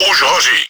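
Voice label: enemy fire started by player, чешской озвучки; Už hoří.; Czech; Он уже горит.; World of Tanks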